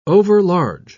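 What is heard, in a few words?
"overlarge", British English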